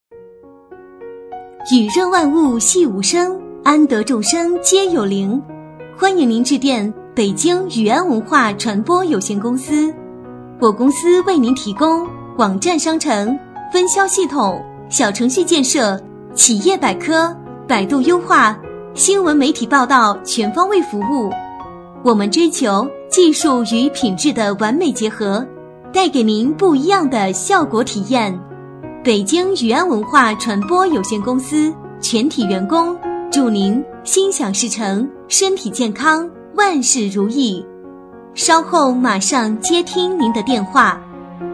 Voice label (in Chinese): 女声彩铃; 雨安文化